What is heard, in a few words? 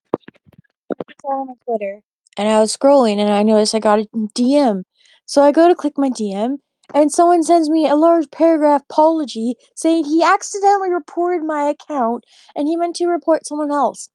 voice message; Discord; Outcast Gaming